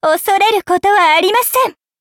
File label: levelup, ja